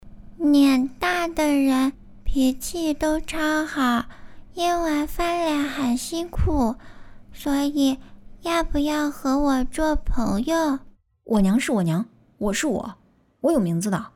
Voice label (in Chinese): 声展 全年龄